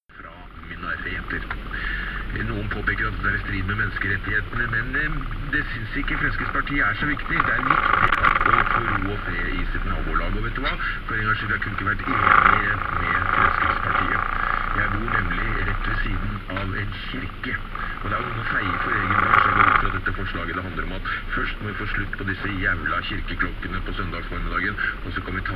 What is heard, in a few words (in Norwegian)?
Reklame; RadioRox; Disse jaevla kirkeklokkene